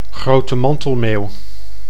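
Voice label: "grote mantelmeeuw", Dutch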